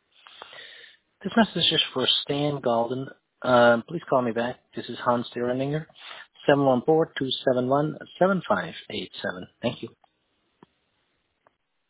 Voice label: voice mail example